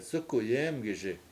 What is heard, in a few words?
"sUku7 yEEmgiSi", washo; dog swims